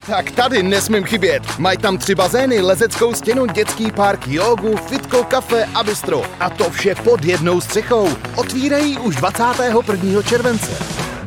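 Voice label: Czech, male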